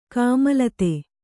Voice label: "ಕೋಮಲತೆ", Kannada

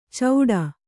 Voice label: "ಚೌಡ", Kannada

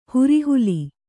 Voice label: "ಹುರಿಹುಲಿ", Kannada

